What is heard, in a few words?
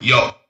"yo", Voice